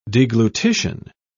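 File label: "deglutition", British English, dictionary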